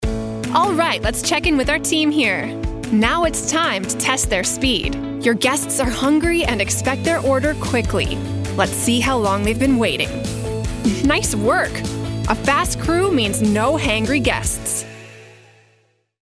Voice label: Fun Engaging Virtual Reality Training for Hospitality Industry, eLearning Narration; eLearning Voice Over; Cool, Millennial - VR Training